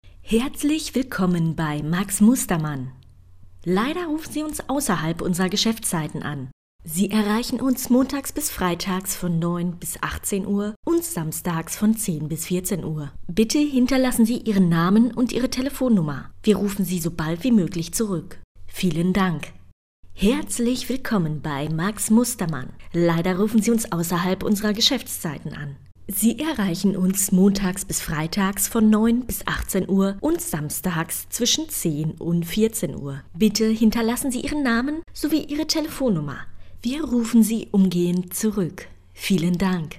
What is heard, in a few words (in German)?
Telefonansage